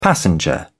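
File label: "passenger", British English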